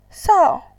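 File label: "sao", Mandarin Chinese, fourth tone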